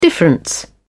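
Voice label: "difference", British English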